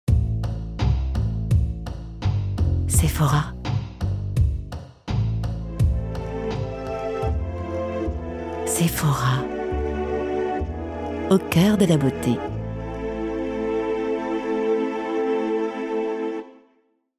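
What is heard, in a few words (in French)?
Spot Cine, voix off; Sephora; Complice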